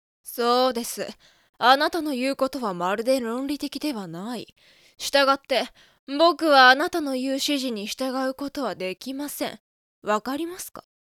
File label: boy